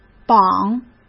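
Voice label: "绑", Mandarin Chinese; hsk; chinese-flashcards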